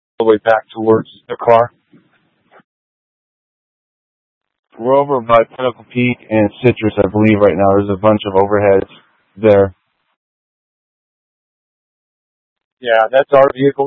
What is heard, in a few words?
Mutual Aid-Intersystem Interop B, scanner